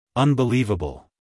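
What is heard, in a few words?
"unbelievable", American English, male; everyone-can-use-english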